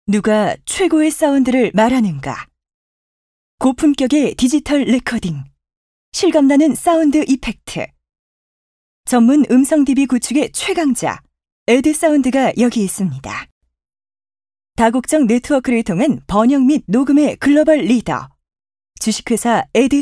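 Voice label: Korean, female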